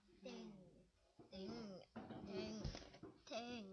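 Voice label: "ding", spoken word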